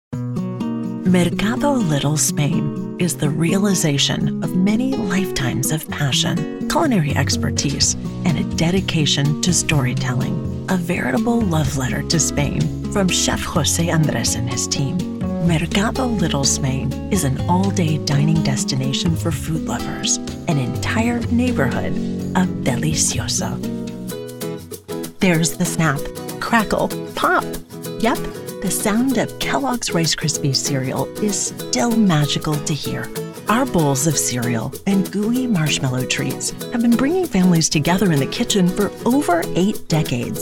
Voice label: CORPORATE NARRATION